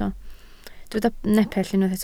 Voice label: "nepell", Welsh

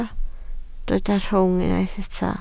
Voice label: "rhwng", Welsh

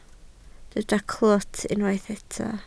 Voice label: "clwt", Welsh

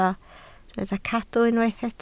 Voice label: "cadw", Welsh

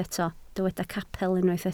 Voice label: "capel", Welsh

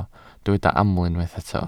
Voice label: "aml", Welsh

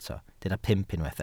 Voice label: "pump", Welsh

